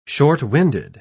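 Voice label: "short-winded", British English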